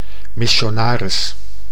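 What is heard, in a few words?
"missionaris", Dutch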